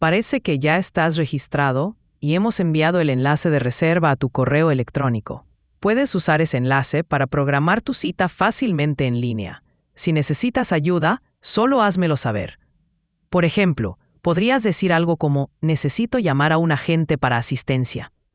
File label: question spanish